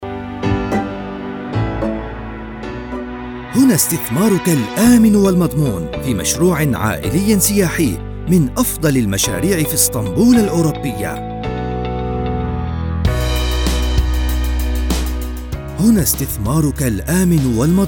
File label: turkish corporate videos